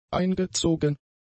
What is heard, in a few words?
"eingezogen", German; adjectives